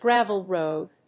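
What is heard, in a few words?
"Gravel Road", English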